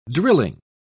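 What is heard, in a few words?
"drilling", English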